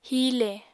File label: "hiile", apatani